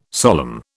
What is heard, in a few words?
"solemn", British